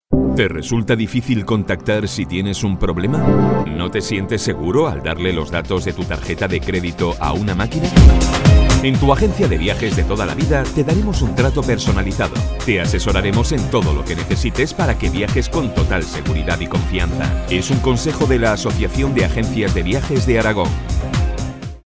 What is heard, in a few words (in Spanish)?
cuña